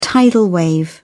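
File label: "tidal wave", British English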